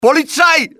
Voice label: call police, civ, male; XBOX